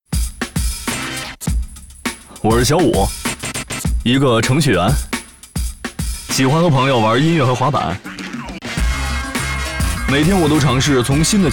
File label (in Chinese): 男 年轻不羁个人独白